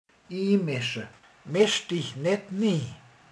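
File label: "beleavatkozik", Hungarian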